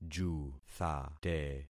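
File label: "juthate", concatenated syllables; Affixing experiment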